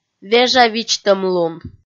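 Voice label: "вежавидзтӧмлун", Komi, female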